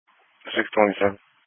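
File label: Police Patrol Dispatch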